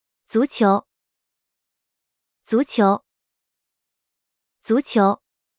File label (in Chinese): "足球", Mandarin; Traditional Chinese